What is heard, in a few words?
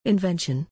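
"invention", English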